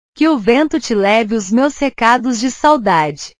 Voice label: "saudade", portugues

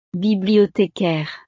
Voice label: "bibliothécaire", francés; profesiones

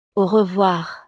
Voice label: "au revoir", francés

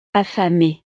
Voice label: "affamée", francés; estados animo

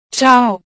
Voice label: "tchau", portugues

